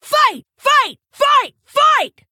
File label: Female Orc; TRIGGER BRAWL